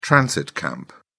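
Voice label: "transit camp", British English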